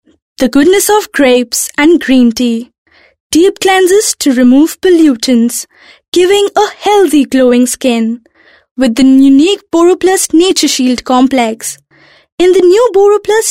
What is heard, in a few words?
English, female voice